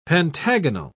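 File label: "pentagonal", English, British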